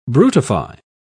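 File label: "brutify", English, British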